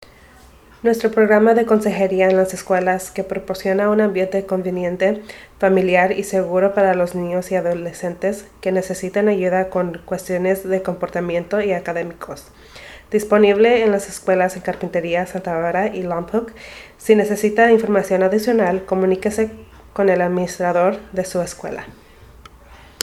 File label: School based Counseling Spanish